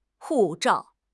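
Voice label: "hu4 zhao4", Chinese; HSK; HSK3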